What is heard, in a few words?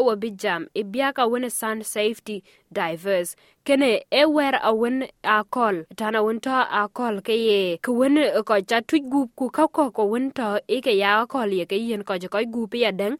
dinka; Wël ke tiit rot në akolic; SBS Dinka